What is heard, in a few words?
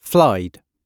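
"flied", British English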